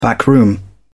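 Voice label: "backroom", British English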